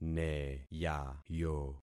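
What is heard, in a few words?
"neyayo", concatenated syllables; Affixing experiment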